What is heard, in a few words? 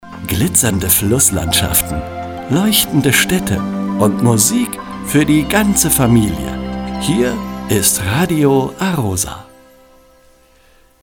Radio Station ID male; Radio A-ROSA